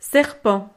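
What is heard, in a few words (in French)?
"serpent", French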